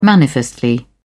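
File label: "manifestly", British English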